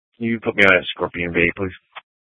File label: Sheriff, scanner P25; Shrf Lakes